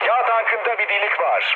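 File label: damaged oil, mood low, Turkish; speech; War Thunder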